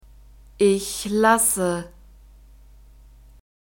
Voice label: "ich lasse", German; verbs present tense irregular